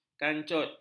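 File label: "kancut", Malay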